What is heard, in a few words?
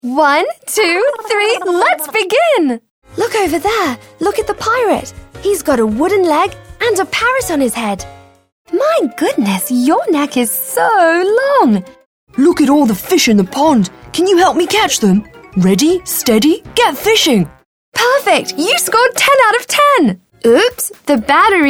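British, toys; UK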